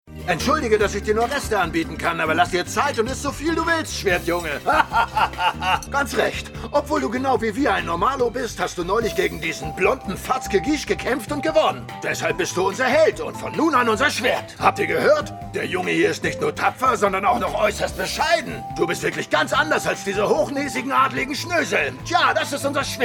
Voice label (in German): Synchron Anime; Familiar of Zero; Marteau